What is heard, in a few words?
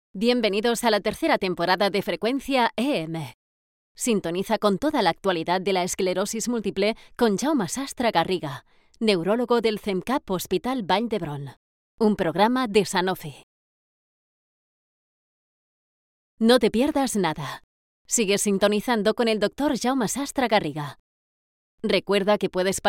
spanish podcasts